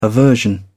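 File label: "aversion", British English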